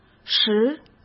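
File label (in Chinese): "十", Mandarin Chinese; chinese flashcards; hsk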